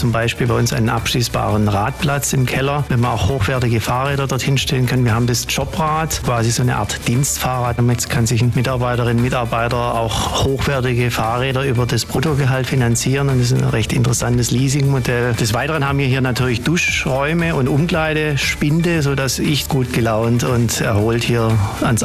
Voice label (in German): Radkultur Spot; SSC-Services; Hitradio antenne1